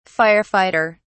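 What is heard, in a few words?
"fire fighter", British English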